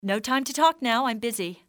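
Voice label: no time, english female; XBOX; civ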